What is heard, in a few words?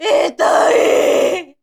horror "itai"